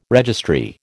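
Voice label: "registry", American English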